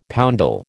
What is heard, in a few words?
"poundal", American